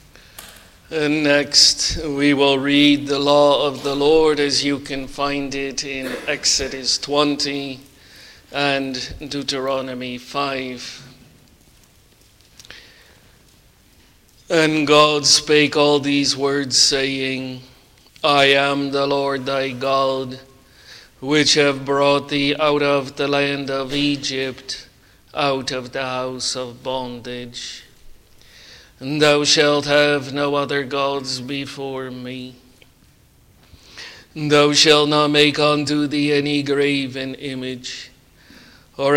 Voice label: Bible Reading; isaiah 25 9